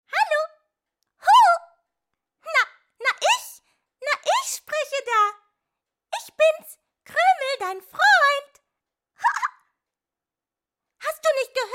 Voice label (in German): Trickstimme; LOFT VOICES